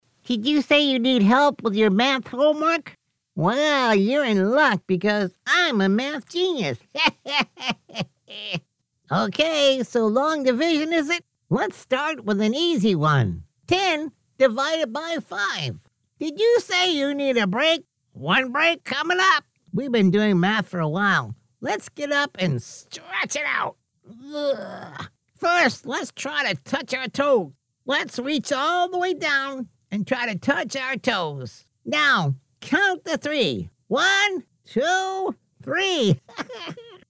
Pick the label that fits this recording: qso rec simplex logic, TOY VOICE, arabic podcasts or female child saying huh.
TOY VOICE